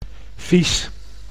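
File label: "vies", Dutch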